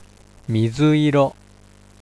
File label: "mizu-iro", Japanese